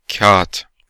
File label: Paper in Turkish